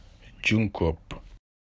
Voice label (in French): "Crabe" Wolof